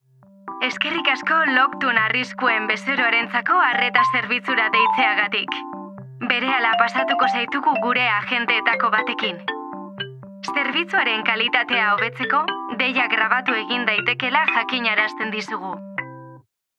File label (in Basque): ELEARNING EUSK KONTESTADORIA